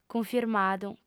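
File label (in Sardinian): "cunfirmadu", female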